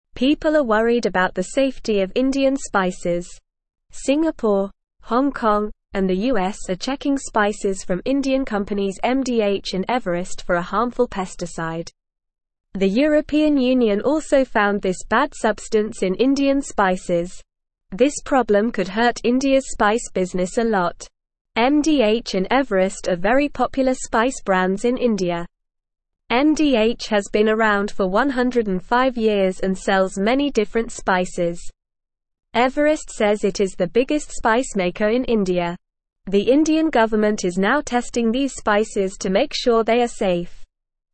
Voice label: English NORMAL Reading; Worries about Indian spices hurting peoples healt